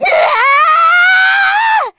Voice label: angry